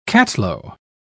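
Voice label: "catalo", British English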